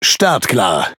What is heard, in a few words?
Speech Pilots Bomber Ready, DE; World Of Warships